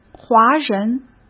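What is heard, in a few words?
"华人", Mandarin Chinese; hsk; chinese-flashcards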